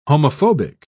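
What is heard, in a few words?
"homophobic", British English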